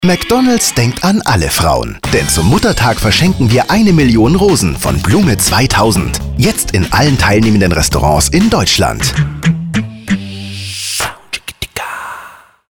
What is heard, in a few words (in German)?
Spot; McDonalds Muttertag